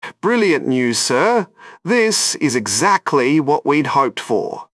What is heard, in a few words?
excited; jarvis